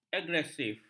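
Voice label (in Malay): "agresif", Malay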